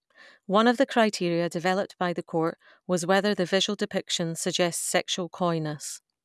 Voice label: clean speech reference